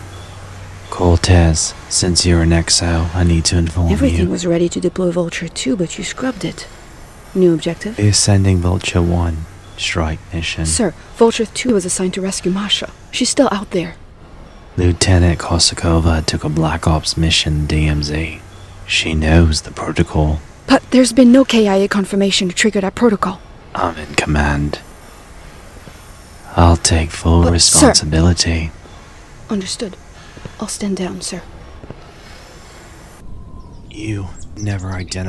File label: Animation